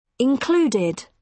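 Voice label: "included", British English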